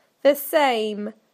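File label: "the same", British English; PictureDictionary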